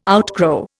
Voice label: "outgrow", Indian

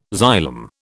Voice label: "xylem", British

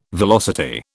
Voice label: "velocity", British

